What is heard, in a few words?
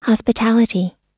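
"hospitality", US English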